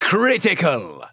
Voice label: Announcer Hit Critical; First Mate